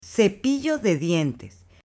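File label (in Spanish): "CEPILLO DE DIENTES", Spanish, female; AppLenguaje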